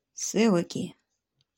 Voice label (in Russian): "сэвэки", [сэвэки]